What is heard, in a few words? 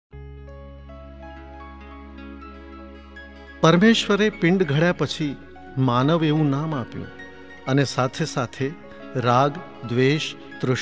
Narration; Aapane Manavi